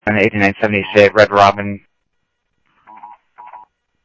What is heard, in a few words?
Police, scanner audio